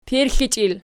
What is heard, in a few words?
"pirxlichiil", Archi